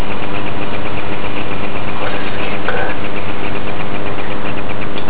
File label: E.V.P. unclear what is said